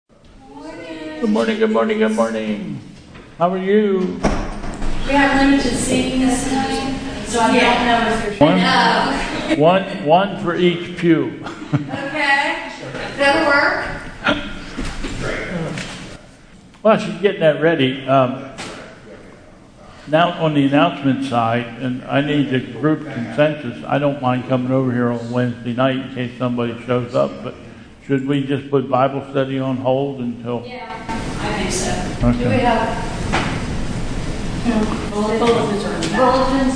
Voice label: Announcements; Sunday Service

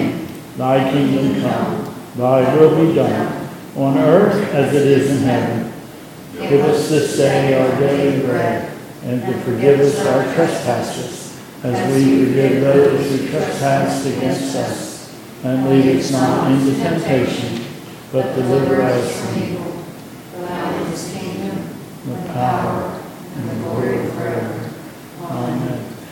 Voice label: Pastoral prayer and Lords Prayer

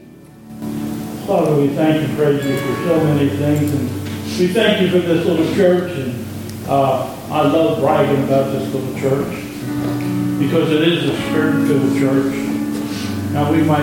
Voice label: Prayer Benediction; Covid-Time

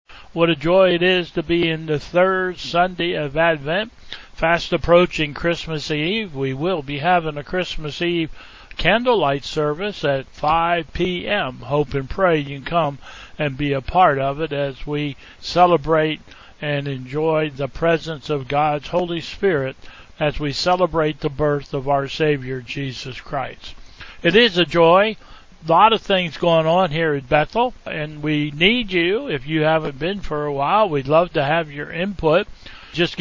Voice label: Welcome and Announcements

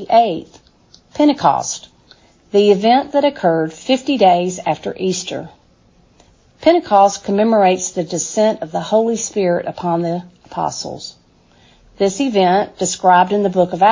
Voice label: Welcome and Announcements

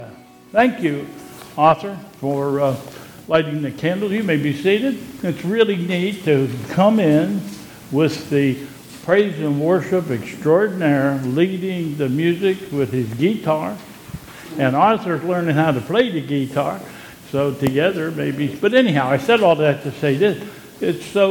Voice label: Announcements

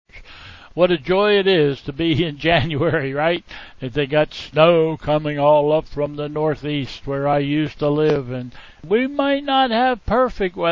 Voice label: Welcome and Announcements

